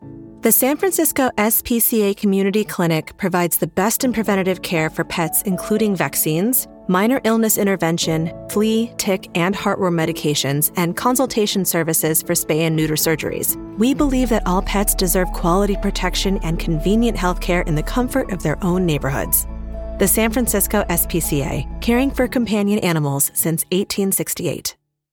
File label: narration; SFSPCA